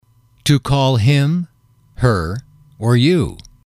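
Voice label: "to call him her you", English, male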